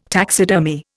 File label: "taxidermy", Indian